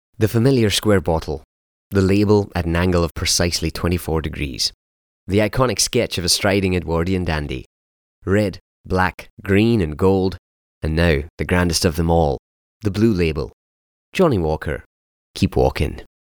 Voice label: English Scottish commercial

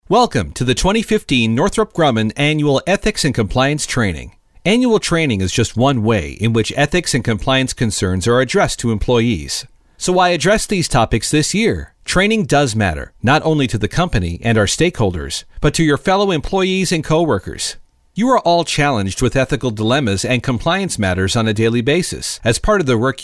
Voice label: english canadian corporate videos

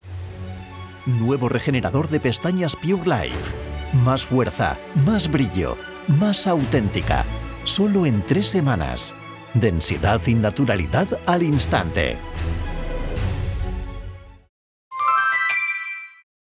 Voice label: spanish commercial; Espanha

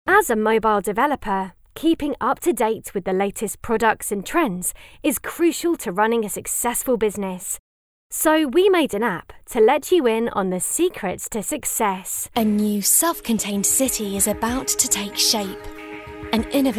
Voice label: english british corporate videos

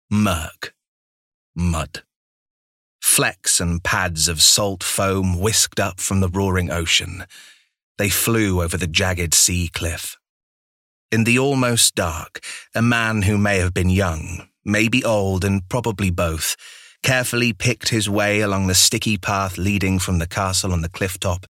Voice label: english british audiobooks

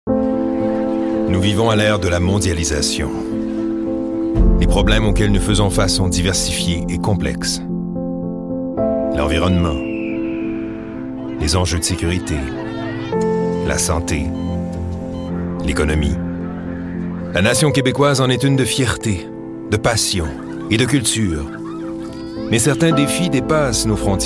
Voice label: french canadian political ads

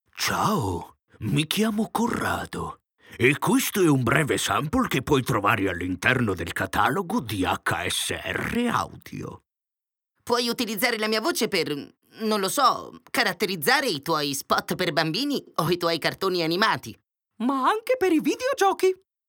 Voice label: Italian animation

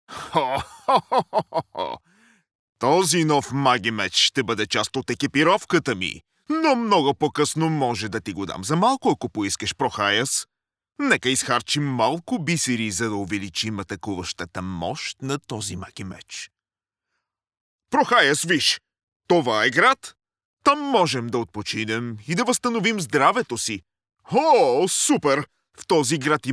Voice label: bulgarian animation

